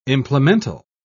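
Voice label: "implemental", British English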